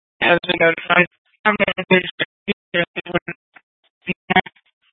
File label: Fire Dispatch